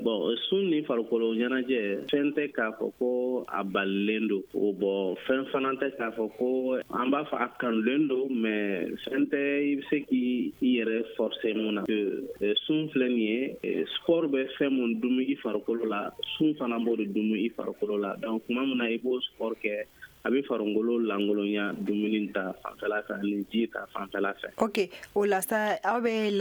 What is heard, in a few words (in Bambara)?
magazine bamanankan